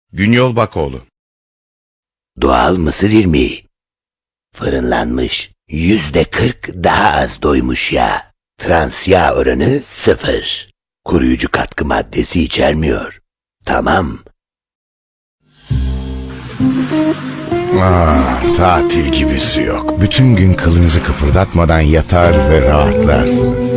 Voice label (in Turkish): animasyon